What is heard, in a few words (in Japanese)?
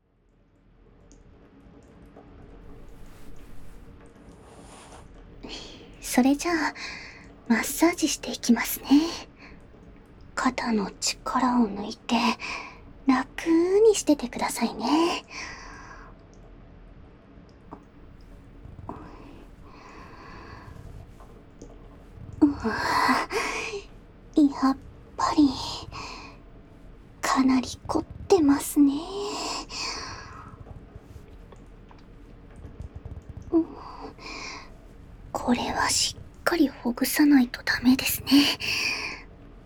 肩のマッサージをしながら囁き; 雨宿りの里～耳を澄ませばほら、あなたを癒やす音がすぐそこに～